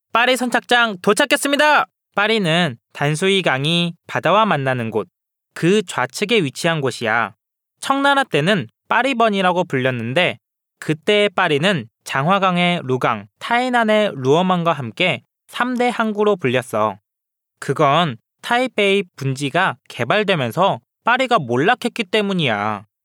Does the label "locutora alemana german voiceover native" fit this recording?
No